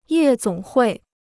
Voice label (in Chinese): "夜总会", Chinese